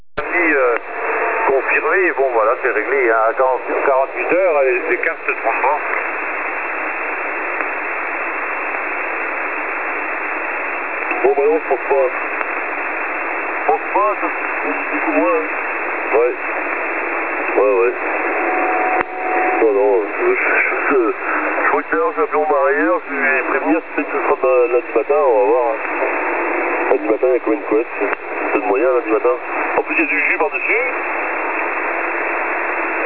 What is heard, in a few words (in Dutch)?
onbekend franstalig, Onbekende stations HF; 8.650.00 onbekend franstalig